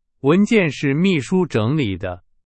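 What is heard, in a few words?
Chinese; hsk2; 是。。。的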